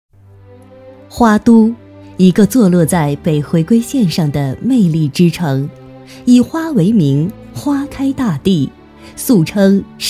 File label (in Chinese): 女 专题 城市大气专题